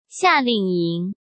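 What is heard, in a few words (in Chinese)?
"夏令营", Mandarin; chinese flashcards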